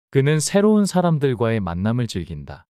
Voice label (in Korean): 문장 남자